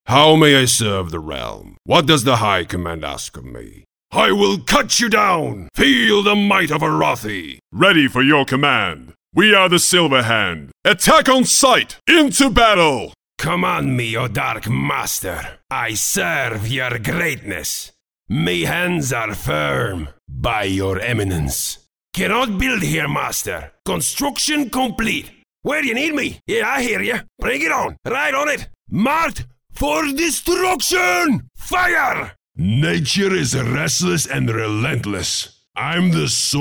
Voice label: male russian english with multiple character accents; war craft iii reforged